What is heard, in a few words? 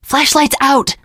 kill that light, teengirl, voice; source engine; left 4 dead 2; survivor